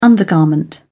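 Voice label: "undergarment", English, British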